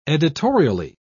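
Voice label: "editorially", British English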